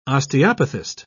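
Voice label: "osteopathist", British English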